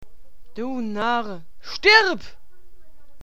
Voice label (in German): Narr